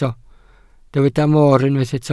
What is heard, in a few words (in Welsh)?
"moor", Welsh